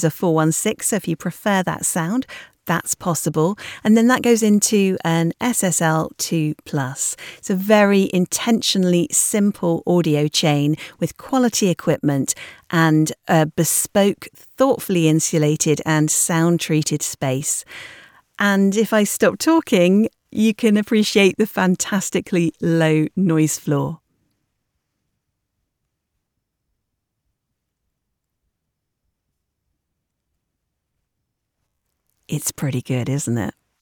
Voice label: raw studio sample, Professional Voiceover Services